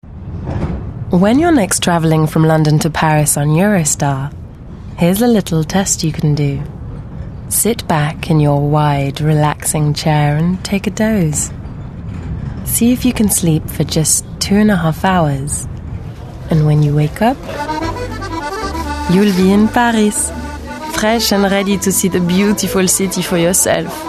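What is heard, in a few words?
French English, Female, 20s-30s, voiceover; European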